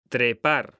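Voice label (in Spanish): "trepar", Spanish, male; mekanta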